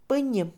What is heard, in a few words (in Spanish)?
alguien, Mixe, Mujer; INALI